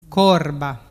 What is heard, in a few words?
"corba", dialettu